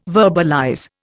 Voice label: "verbalize", Indian